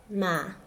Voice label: old person, Mokilese